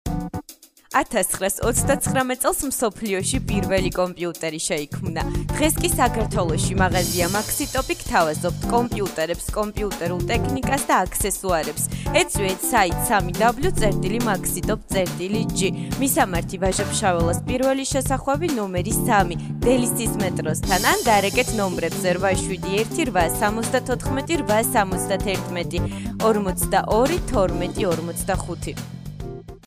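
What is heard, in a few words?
georgian female commercial; voice demos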